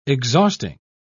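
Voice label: "exhausting", English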